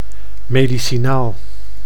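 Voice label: "medicinaal", Dutch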